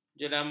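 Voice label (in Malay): "jeramah", Malay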